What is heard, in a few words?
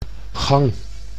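"gang", Dutch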